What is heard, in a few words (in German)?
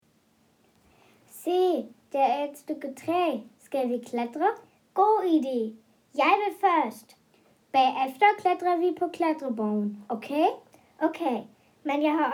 Dialog